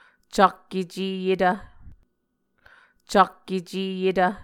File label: "cak-eceyetv", muscogee; Mvskoke Words with Voicing Consonants; vcak-ecēyetv; A Dictionary of Creek/Muskogee; SIL International; Onvkv Ohhvyayices